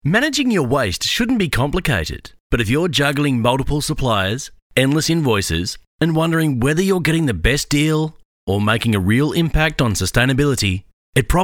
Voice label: Waste Management, Explainer Videos / Waste Management Explainer, Natural Male Voice